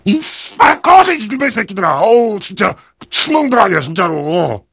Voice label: heavy cheers

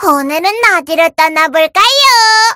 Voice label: jeers; spyro

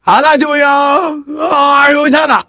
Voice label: engineer battlecry

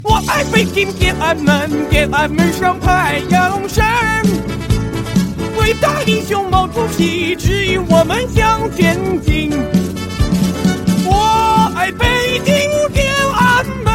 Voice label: scout cheers; cnscout

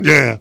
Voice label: heavy yes

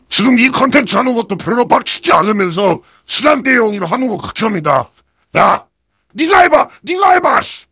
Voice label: heavy jeers

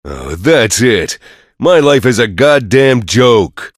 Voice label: soldier jeers

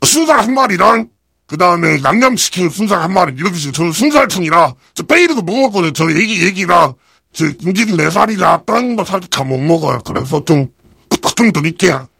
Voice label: heavy battlecry

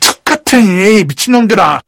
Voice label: sniper jeers

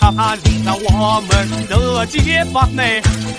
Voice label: scout battlecry; cnscout

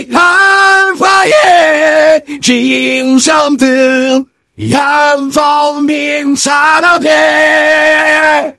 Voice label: engineer cheers

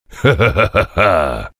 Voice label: soldier cheers

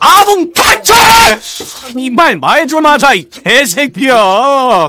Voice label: scout jeers

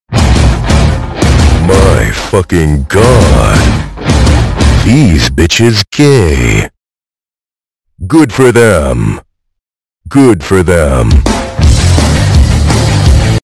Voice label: soldier battle cry

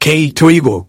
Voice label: negative vocalization

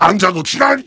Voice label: heavy positive vocalization

